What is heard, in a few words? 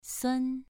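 "sun" Mandarin Chinese, second tone, pronunciation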